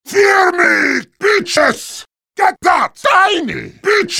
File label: heavy battlecry